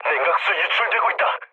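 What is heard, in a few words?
Korean damaged water mood low; War Thunder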